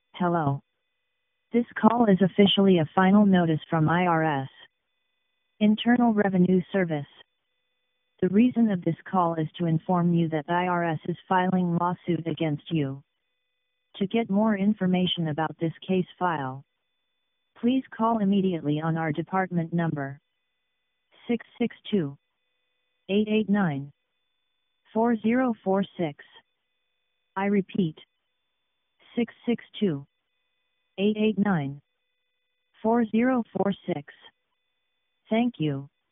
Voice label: voicemail